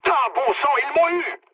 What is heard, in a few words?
aircraft destroyed mood low, speech fr; War Thunder